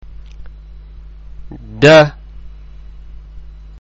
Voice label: Daal, arabic letter sounds